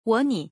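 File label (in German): Chinesisch, "Ich liebe dich"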